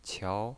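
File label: "qiao" second tone, pinyin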